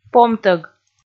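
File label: "помтӧг", Komi, female; kpv audio dict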